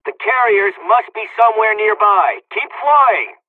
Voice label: dialog, hungarian; us midway; War Thunder